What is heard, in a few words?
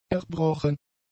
"erbrochen", German; adjectives